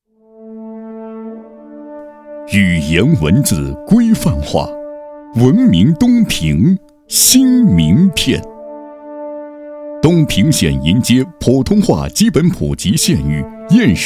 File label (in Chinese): 男 政府宣传片 大气浑厚; 政府篇